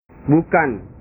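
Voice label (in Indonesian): "bukan", Indonesian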